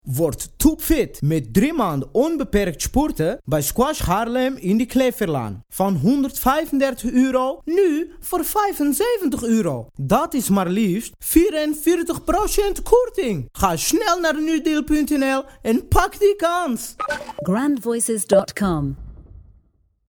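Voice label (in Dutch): accent male TURKS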